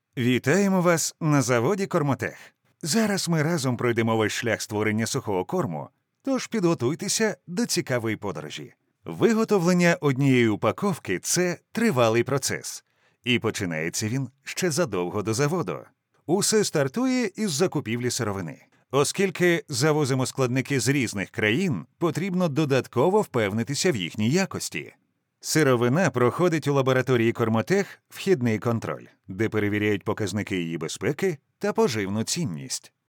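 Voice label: Ukrainian, male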